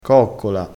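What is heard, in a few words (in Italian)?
"coccola", vocabolario dialettale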